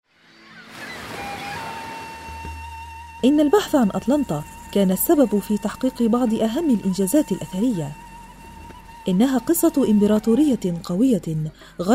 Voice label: MSA Egyptian narration; msa voiceover